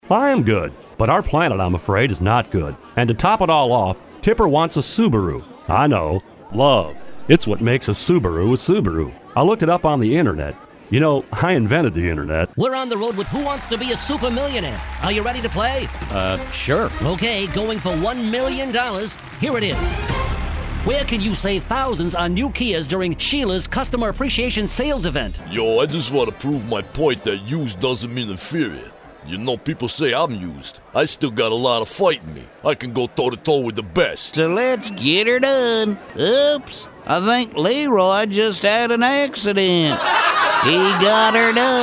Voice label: celebrity impressions; character voices